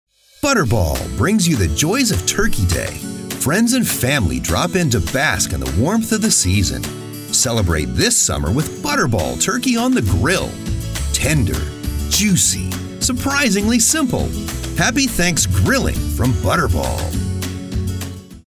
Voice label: Commercial; Butterball